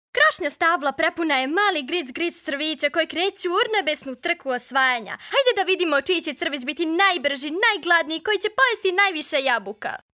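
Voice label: Serbian female voice over, character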